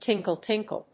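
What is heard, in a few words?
"tinkle-tinkle", English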